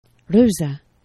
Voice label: "ruza", Esperanto; geneva